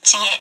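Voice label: "ちぇ", Japanese syllable; Japanese syllabary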